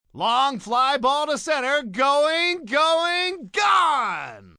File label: Going Going Gone, announcer, male, baseball; Sports, umpire, coach; Talking Sound Effects